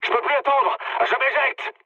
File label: bailout mood med, speech fr; War Thunder